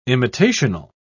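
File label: "imitational", British English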